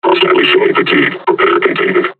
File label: taunt, combine charger; Half Life Alyx